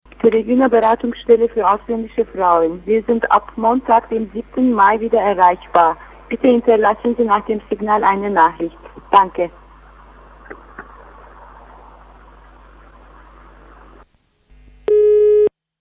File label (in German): Anrufbeantworter